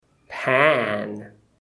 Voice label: "pan", English